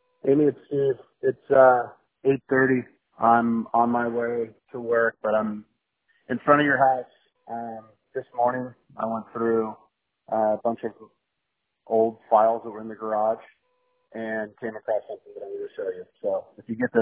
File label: voicemail